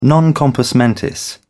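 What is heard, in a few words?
"non compos mentis", British English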